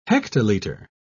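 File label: "hectoliter", British English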